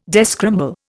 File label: "descramble", Indian